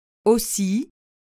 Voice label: "aussi", French

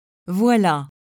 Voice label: "voila", French

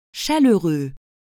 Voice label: "chaleureux", French

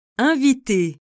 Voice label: "inviter", French

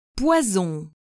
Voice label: "poison", French

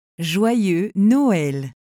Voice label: joyeux noel slow, French

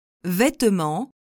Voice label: "vetement", French

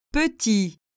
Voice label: "petit", French; small

